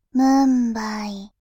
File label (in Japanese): ムンバイ, バイノーラル右